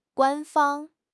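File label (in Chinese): "官方", Chinese; HSK6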